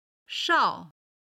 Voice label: "shao4", chinese